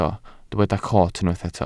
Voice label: "coot", Welsh

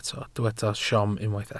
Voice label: "siom", Welsh

